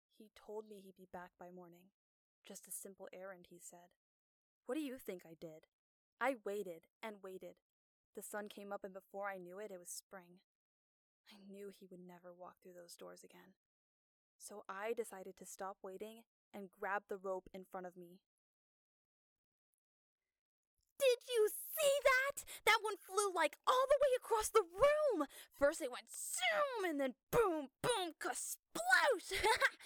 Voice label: young male

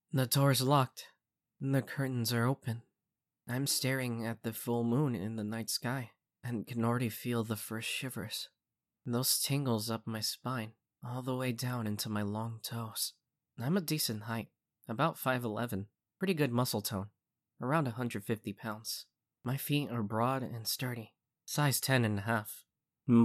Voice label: Voiceover

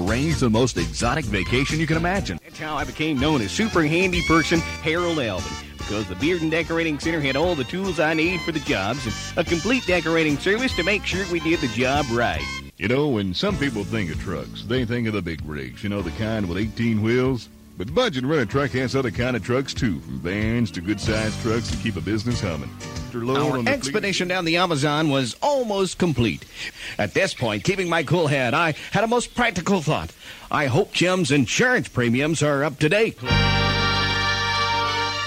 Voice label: Commercial

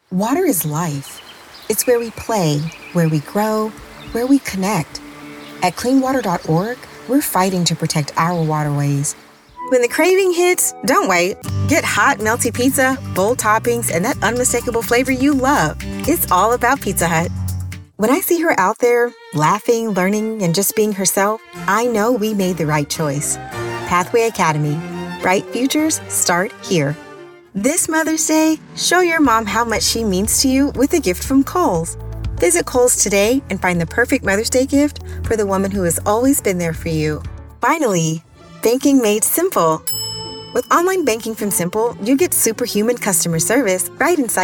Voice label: Commercial

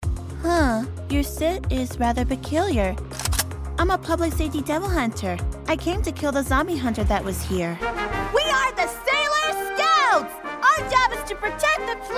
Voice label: Anime

